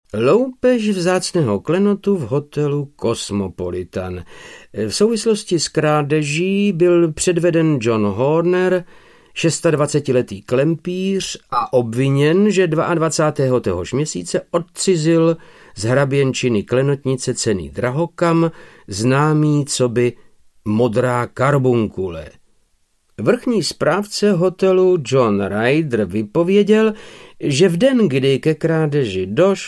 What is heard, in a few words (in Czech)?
Audiokniha; Dobrodruzstvi Sherlocka Holmese 7 Modra karbunkule; Arthur Conan Doyle